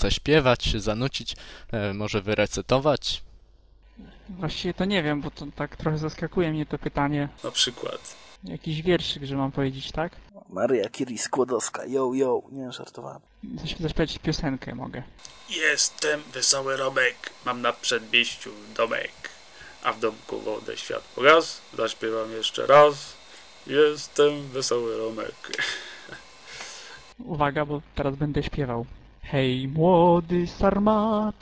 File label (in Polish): wywiad; sarmacja; gellonia; zakon